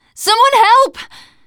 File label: help, teengirl, voice